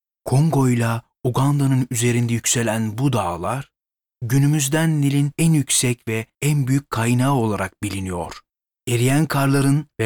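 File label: Turkish Documentary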